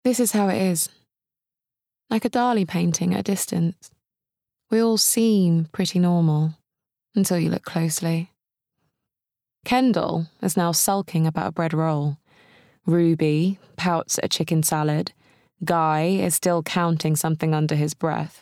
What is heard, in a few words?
Clean Bookread; Clean by Juno Dawson